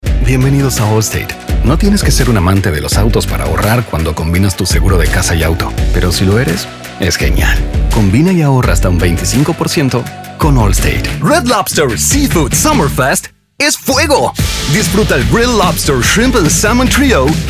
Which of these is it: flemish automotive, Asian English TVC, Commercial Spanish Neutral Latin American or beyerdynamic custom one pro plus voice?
Commercial Spanish Neutral Latin American